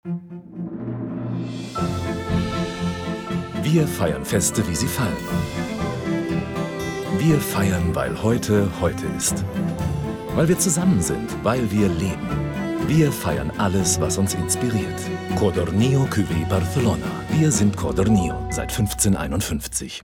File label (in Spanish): mix aleman internet; codorniu cuvee 20s